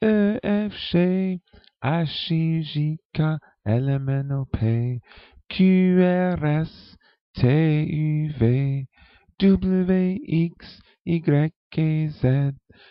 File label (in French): alphabet en francais